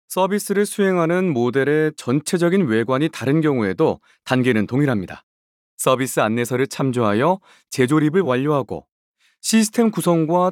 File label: KOREAN MALE